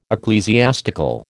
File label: "ecclesiastical", American